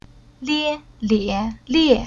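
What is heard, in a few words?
"Lie", Chinese syllable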